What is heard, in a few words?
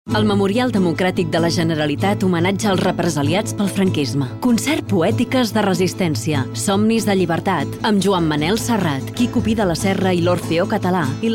catalan movie trailers